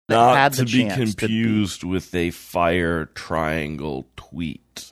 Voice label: saying not to be confused with fire triangle tweet chopped screwed